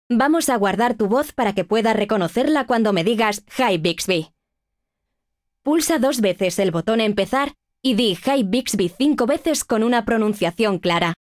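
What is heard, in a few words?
wake up ready Spanish; Bixby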